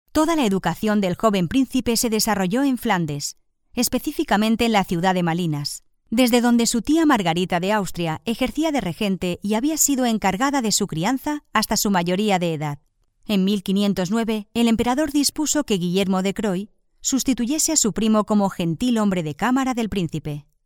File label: locutora spanish voiceover